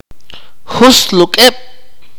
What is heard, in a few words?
"eleven", Akateko